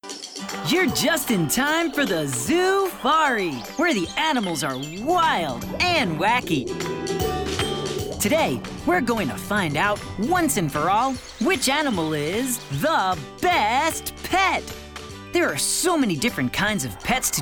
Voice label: television narration